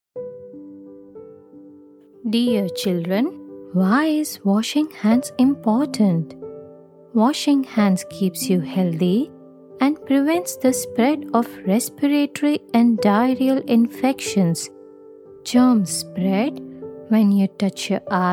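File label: Instructional English, Female Voice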